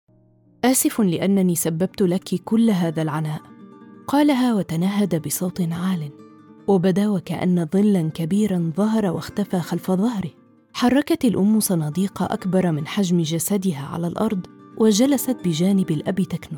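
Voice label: Narration Modern Standard Arabic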